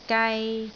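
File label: "gai3", Cantonese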